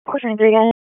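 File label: Raleigh Police Southeast District Dispatch, p25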